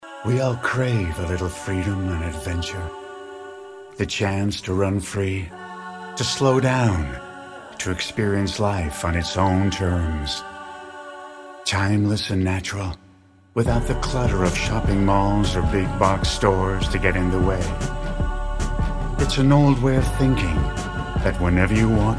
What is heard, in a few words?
Manatoulin Island Promo